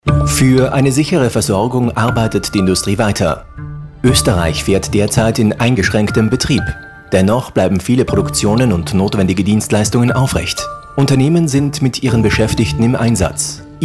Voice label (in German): Spot Industriellenvereinigung Dank lang